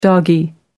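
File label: "doggie", British English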